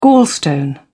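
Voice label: "gallstone", British English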